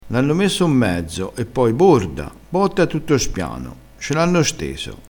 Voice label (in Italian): "borda", crespinese